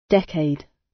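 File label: "decade", British English; Time, history; Thời gian, lịch sử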